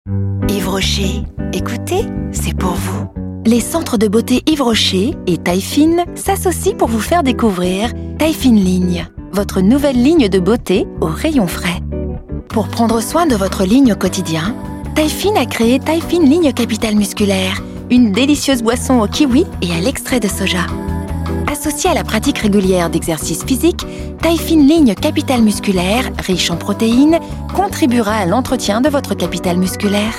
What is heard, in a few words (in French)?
fraiche informative, voix off comédienne; Yves Rocher & Taillefine